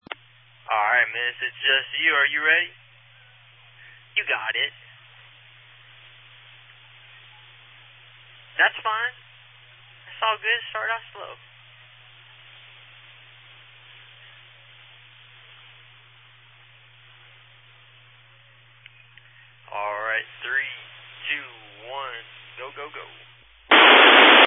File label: Family Radio Service (FRS)